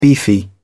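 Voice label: "beefy", English, British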